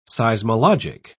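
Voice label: "seismologic", British English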